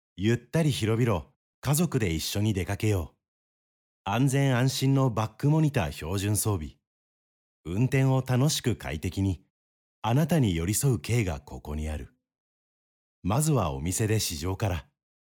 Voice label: male